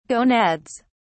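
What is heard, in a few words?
"gonads", British English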